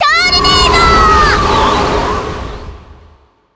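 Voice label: skill tornado, Japanese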